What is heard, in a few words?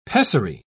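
"pessary", British English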